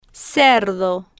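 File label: "cerdo", Spanish, female, slow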